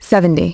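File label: "seventy", English; iOS 4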